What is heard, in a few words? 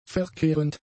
"verkehrend", German; adjectives